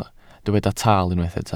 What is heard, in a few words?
"taal", Welsh